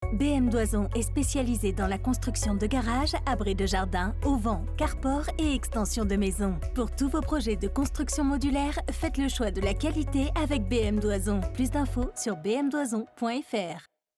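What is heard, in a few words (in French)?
comédienne voix-off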